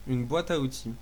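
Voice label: toolbox desc, French; tuxpaint-stamps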